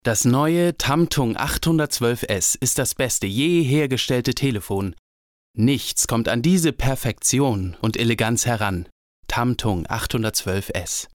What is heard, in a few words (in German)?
Fake Werbung; Tamtung; LOFT VOICES